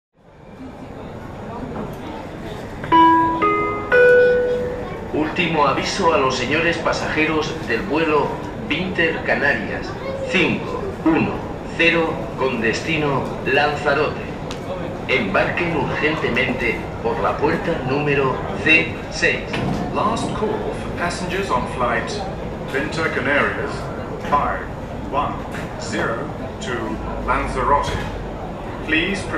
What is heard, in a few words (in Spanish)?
ultimo avisto, Flughafen Ansagen; Intercanarias